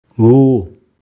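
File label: "Wo" Garhwali; That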